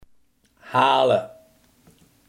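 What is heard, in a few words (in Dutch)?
haelen, Limburgse gesproken woordenboeken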